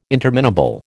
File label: "interminable", American